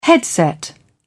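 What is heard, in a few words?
"headset", British English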